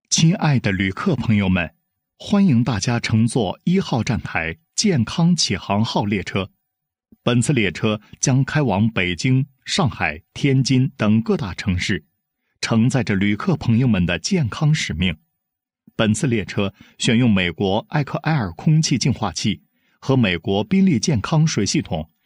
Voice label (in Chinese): 男 播放词 亲爱的旅客朋友们